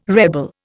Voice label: "rebel", Indian

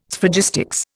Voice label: "Sphragistics", Indian

